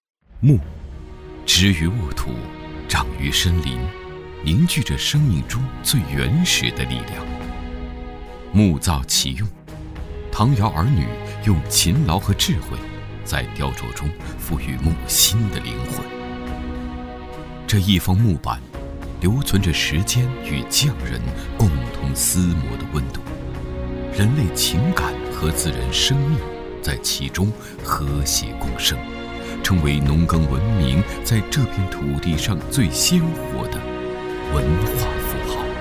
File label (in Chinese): 男, 纪录片; 板上非遗